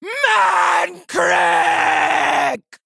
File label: Female Orc Attack